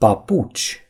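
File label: "slippers", Romanian; gcompris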